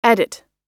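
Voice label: "edit", English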